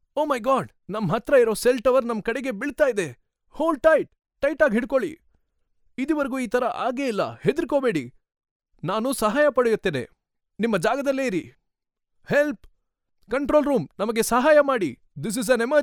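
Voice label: Kannada, male, voice over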